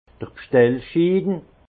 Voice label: "bstellschin", alsace; vin; bon de commande

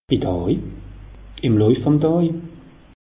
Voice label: "bidaa", alsace; meteo

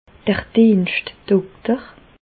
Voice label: "dienschtdokter", Bas Rhin; medecine; médecin de garde

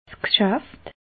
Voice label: "gschaft", alsace

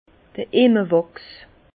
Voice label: "imme wachs", Bas Rhin; vin; cire d’abeille

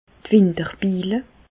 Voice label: "winterbilla", Bas Rhin; medecine; engelures

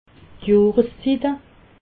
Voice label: "johreszitte", alsace

